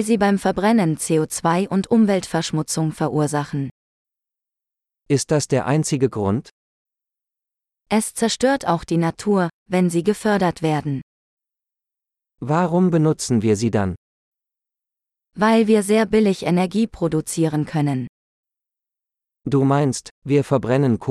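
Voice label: Fossil Fuels - Dialogue, German; de-en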